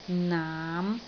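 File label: "naam5", Cantonese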